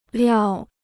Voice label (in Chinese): "料", Chinese